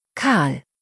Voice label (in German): "Karl", deutsch, jungennamen